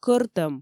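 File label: "кӧртӧм", Komi, female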